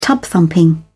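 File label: "tub thumping", British English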